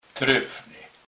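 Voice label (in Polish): "trefny", Kurpie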